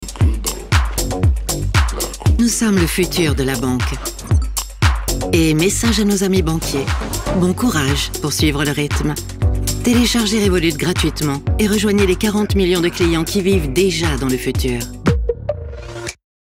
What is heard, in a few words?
Spot TV Banque; Revolut